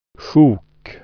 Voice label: "chunk", swedish

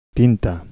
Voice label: ink, galician

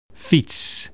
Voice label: "bicycle", dutch

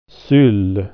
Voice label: "sleeper", swedish

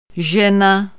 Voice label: "woman", czech

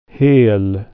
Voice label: "whole", swedish

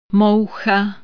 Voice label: "fly", Czech

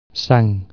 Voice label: blood, catalan

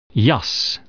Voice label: "coat", dutch

